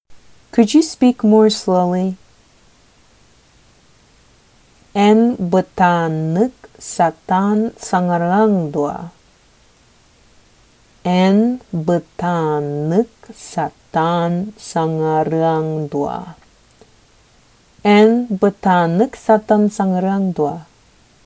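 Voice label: Sakha Could you speak more slowly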